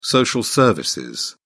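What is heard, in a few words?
"social service", British English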